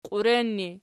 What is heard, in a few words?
"qiuren", Archi